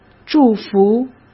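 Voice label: "祝福", Mandarin Chinese; chinese-flashcards; hsk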